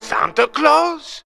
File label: santa claus male questioning; Voice; xmas